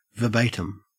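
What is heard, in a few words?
"verbatim", Australian English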